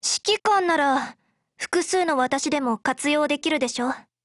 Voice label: COMBINE JP